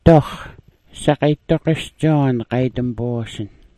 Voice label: "doox", smalgyax